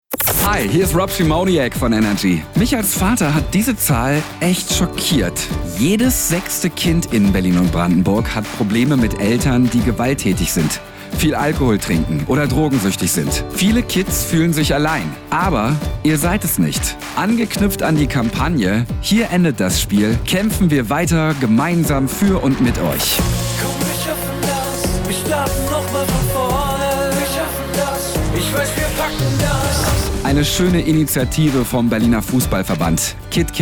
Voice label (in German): Spot; Berliner Fußballverband; KidKit